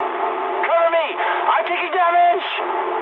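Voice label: aircraft damaged mood high, speech English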